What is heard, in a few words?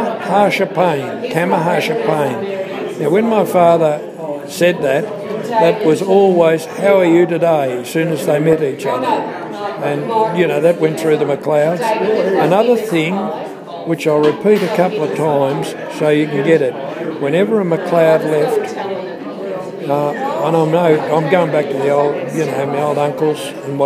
gaelic sayings